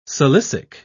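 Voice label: "silicic", British English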